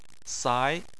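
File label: "sai" tone 2, Chinese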